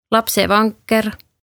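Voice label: "Lapsevanker", Estonian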